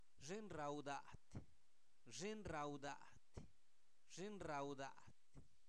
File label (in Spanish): comelón, Zapoteco, santo domingo albarradas; adjetivos; INALI